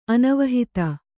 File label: "ಅನವಹಿತ", Kannada; anavahita; not meant; not intended; unintentional.; purposeless.